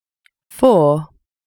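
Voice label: "four", English; numeri in inglese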